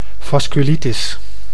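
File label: "vasculitis", Dutch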